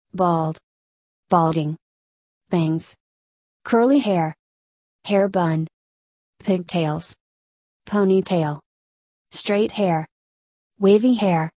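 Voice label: American; hair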